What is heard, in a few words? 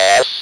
speak and spell "S"; ALPHABET